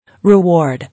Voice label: "Reward", English